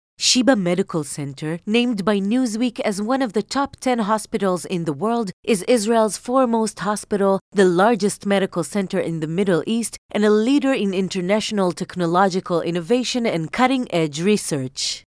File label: English corporate videos